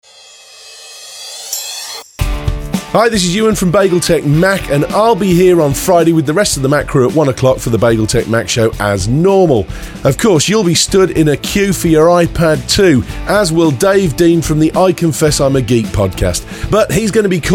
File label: Promo